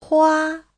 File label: "hua1", chinese